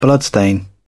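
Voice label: "bloodstain", British English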